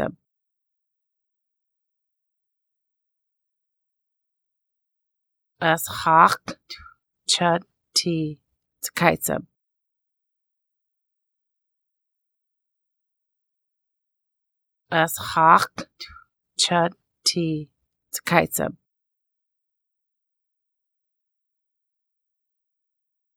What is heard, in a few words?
I like flowers, Lushootseed; ʔəsx̌aƛ̕txʷ čəd ti c̓qaysəb; Tulalip Lushootseed